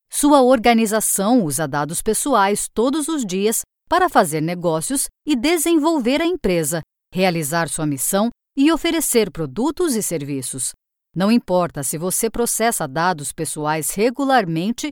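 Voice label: Portuguese E-learning; GoLocalise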